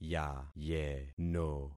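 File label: "yayeno", concatenated syllables; Affixing experiment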